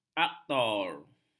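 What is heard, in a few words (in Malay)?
"aktor", Malay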